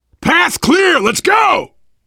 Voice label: path clear, voice, biker; Left 4 Dead 2; survivor

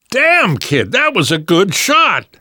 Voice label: nice shot, namvet, survivor voice; Left 4 Dead 2; left4dead2 dlc3

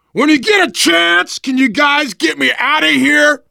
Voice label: call for rescue, survivor voice biker

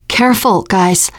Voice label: warn careful, teen girl; player; survivor; voice

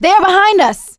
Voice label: watch out behind, survivor voice; producer

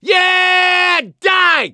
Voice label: battlecry, survivor voice; gambler

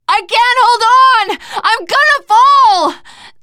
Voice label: ledge hang end, teengirl

